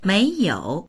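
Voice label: "mei2you3", Mandarin Chinese; méiyǒu; 没有; （動詞の前について否定を表す）～しなかった、～していない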